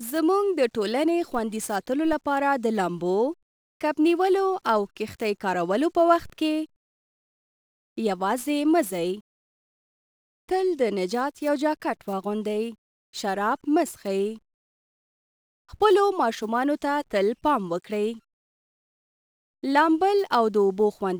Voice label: Pashto, Radio; Water Safety Community Announcement; Royal Life Saving Australia